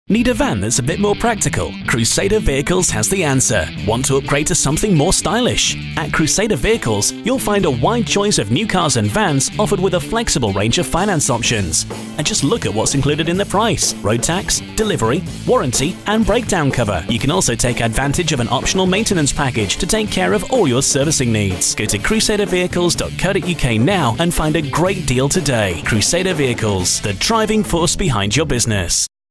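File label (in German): British English Fremdtake Freundlich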